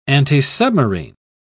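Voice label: "antisubmarine", British English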